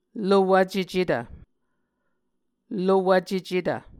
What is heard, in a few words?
"lowvcecetv", Muscogee